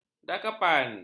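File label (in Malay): "dakapan", Malay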